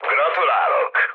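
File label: player killed enemy, mood low, Hungarian; War Thunder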